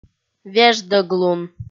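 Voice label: "веждӧглун", Komi, female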